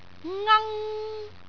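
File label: "ngang1", Cantonese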